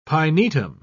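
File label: "pinetum", British English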